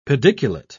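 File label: "pediculate", English, British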